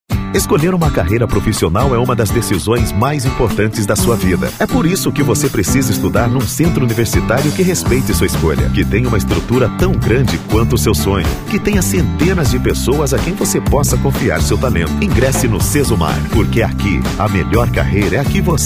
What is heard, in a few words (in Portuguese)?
locutor AM